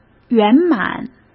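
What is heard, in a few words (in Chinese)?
"圆满", Mandarin; chinese flashcards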